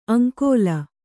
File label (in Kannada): "ಆಂಗುಲಿ", Kannada